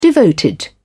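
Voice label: "devoted", British English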